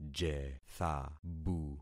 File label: "jethabu", concat syllables; Affixing experiment